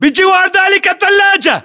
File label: landmark near fridge, battle chatter, english